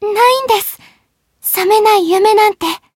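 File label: Voice win Japanese; mayu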